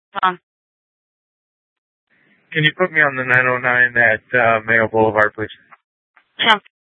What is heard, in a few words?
Sheriff, scanner, P25; Maricopa County; Thompson Peak; Shrf Southeast